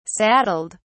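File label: "saddled", English, British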